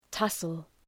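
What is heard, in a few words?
"tussle", English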